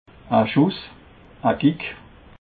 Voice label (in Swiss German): "schuss", alsace